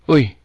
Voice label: hello, cebuano

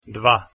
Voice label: 2 croatian

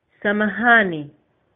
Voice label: excuse me, swahili

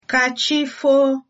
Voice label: goodnight, igbo

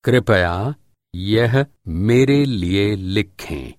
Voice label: write, hindi

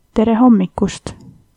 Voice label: good morning, estonian

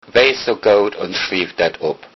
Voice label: write, low saxon

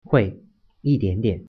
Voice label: a little, mandarin

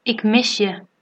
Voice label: i miss you, dutch

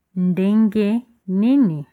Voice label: how are you, Lingala

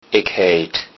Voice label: name, low saxon; Ik heet ...

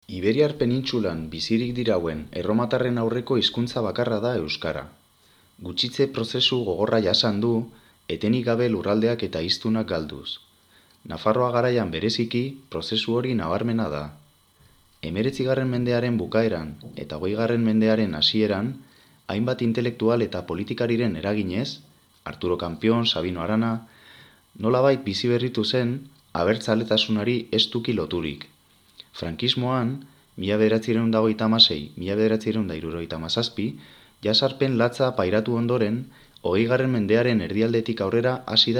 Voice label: basque

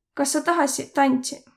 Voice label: dance, Võro

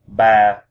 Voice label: "three" vietnamese

